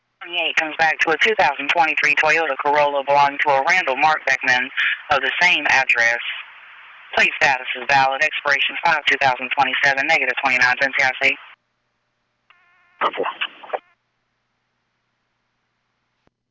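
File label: P25 dispatch radio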